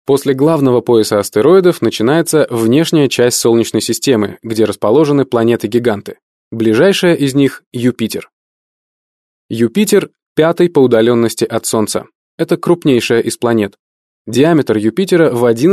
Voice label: russian male

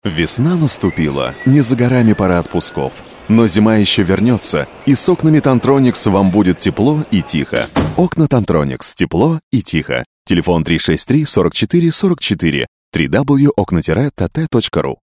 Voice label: commercial

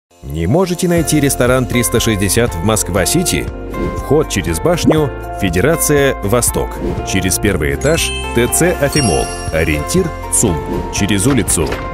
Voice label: RUS

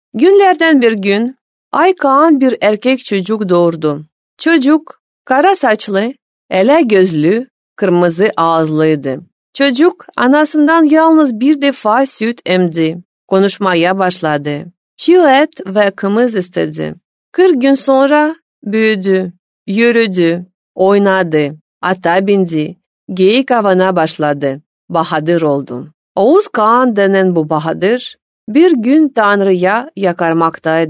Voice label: turkey female

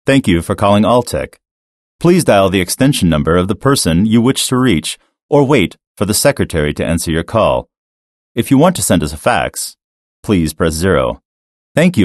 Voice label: american english male

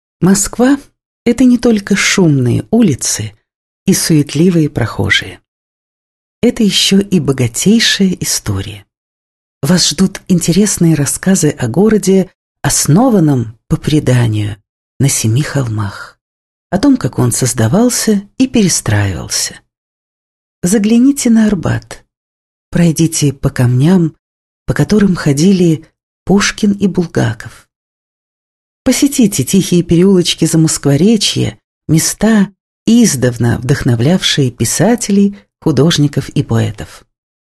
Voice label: russian female

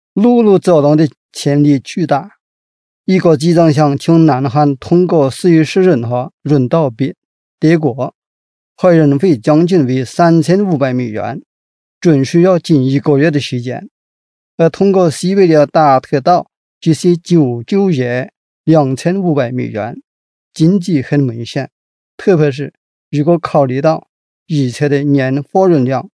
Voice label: chinese male

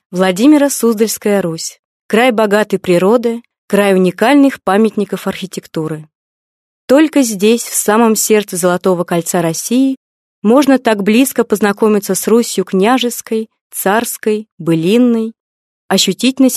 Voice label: russian female

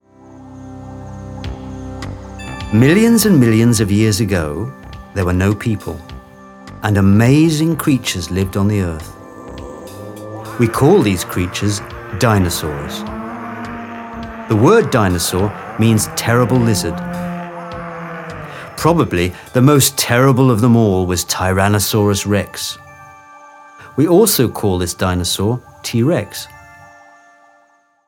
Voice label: british english male

